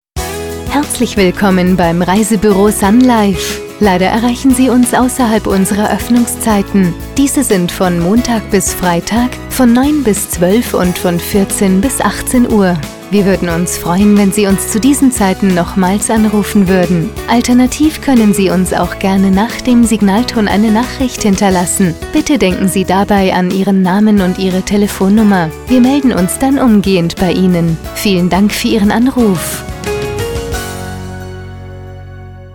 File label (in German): Ansage Reisbuero; Sunlife